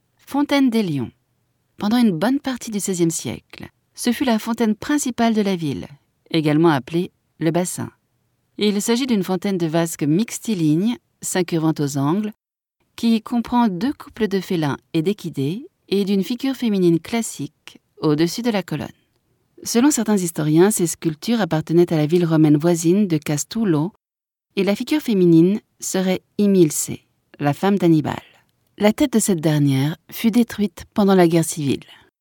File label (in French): audioguia, frances; audioguia baeza